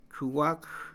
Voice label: "vaca", Mam; INALI